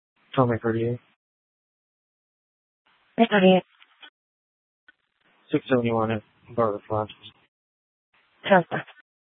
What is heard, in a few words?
Sheriff, scanner P25